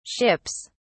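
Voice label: "ships", British English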